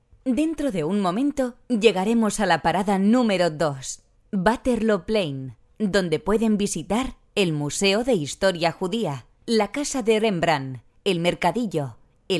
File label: Audioguide